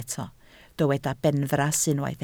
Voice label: "benfras", Welsh